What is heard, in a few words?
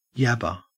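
"yabber", Australian English; pronunciations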